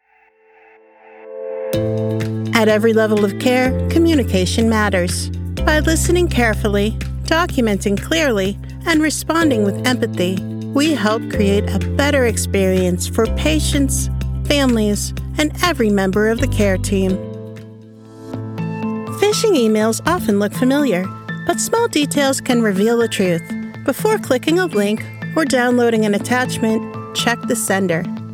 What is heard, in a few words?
NARRATION